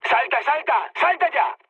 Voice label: bailout, mood med, speech; War Thunder